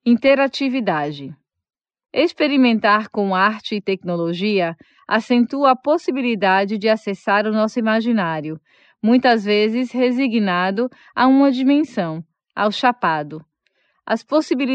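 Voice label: Portuguese Brazil, female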